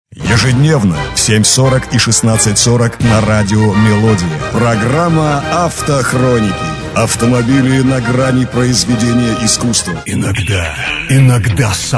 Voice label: voice male